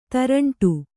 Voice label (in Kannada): "ತೇರಂಟಿ", Kannada